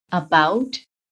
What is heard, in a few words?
"about", phonetic